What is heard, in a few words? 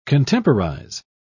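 "contemporize", British English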